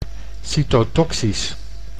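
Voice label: "cytotoxisch", Dutch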